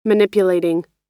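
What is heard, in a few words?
"manipulating", American English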